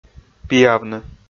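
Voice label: "пиавны", Komi, male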